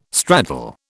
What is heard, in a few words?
"straddle", British